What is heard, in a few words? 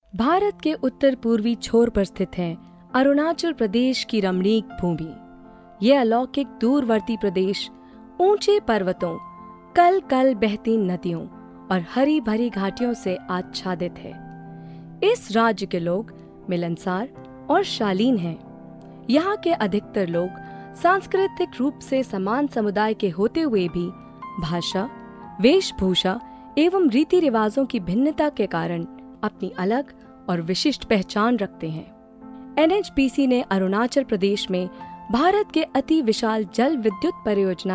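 documentary hindi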